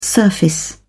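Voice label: "surface", British English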